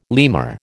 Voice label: "lemur", American